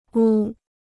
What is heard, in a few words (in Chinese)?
"屋", Chinese